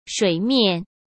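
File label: "水面", Mandarin Chinese; hsk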